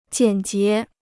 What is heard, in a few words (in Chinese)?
"简洁", Chinese